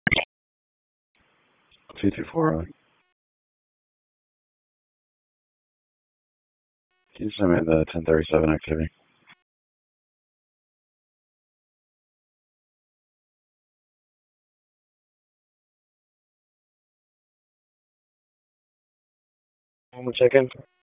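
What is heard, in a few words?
Raleigh Police Northeast District Dispatch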